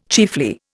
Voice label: "chiefly", Indian

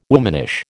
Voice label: "womanish", American

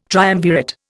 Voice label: "triumvirate", Indian

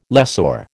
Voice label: "lessor", American

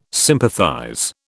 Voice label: "sympathize", British

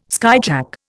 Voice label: "skyjack", Indian

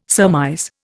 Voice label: "surmise", Indian

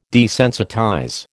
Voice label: "desensitize", American

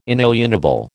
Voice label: "inalienable", American

